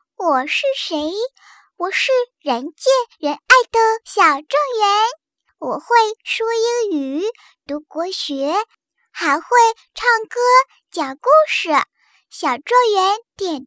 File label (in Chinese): 女30童声; 小状元